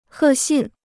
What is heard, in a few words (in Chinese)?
"贺信", Chinese